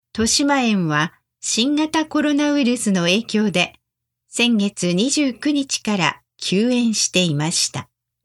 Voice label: news normal, Japanese